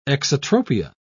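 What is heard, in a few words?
"exotropia", British English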